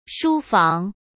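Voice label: "书房", Mandarin Chinese; chinese-flashcards; hsk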